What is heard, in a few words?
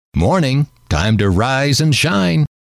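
morning time to rise and shine, male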